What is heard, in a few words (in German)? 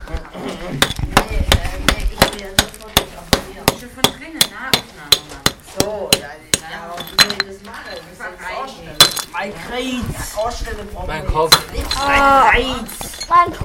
Stimmenwirrwarr im Bunker